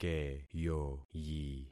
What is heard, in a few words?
"keyoyi", concat syllables; Affixing experiment